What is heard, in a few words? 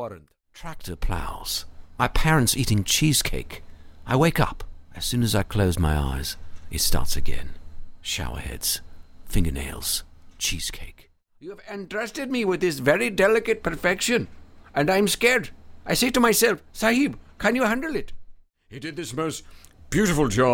VO Accent Comp